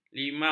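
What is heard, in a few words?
"limau", Malay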